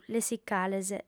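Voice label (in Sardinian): "lessicales", Sardinian, female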